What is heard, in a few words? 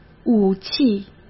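"武器", Mandarin Chinese; chinese flashcards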